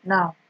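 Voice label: "now", Nigerian Pidgin, female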